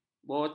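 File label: "botak", Malay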